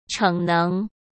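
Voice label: "逞能", Mandarin Chinese; chinese flashcards; hsk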